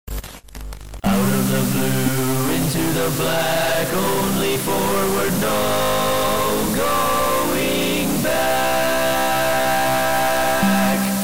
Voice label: Weather Report; Mothership; Moonbase Blues; Azure